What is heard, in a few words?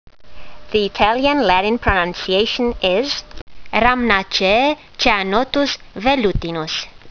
latin; Ceanothus velutinus